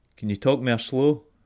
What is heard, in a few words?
slowly scots